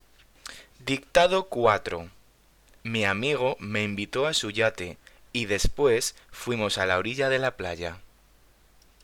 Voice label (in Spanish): Dictado